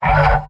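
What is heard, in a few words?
"no", robot; pyro; mvm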